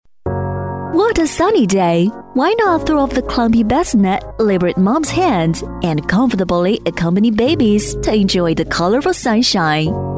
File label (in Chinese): 女 英文 地道质感